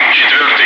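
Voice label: Russian calm; Wingman; DCS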